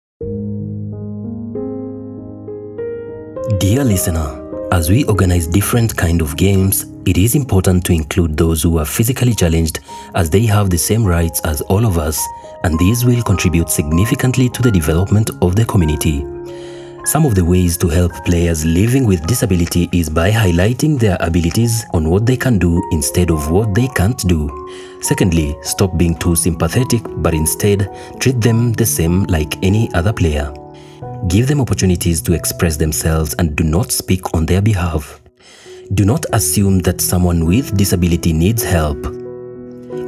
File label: english radio spot